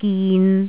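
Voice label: "gin3", Cantonese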